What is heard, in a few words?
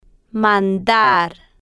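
"mandar", slow, Spanish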